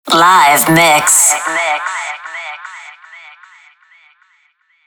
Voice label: sweeper live mix female; killerintrosnet